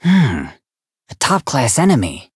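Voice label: Voice encounter fear; jade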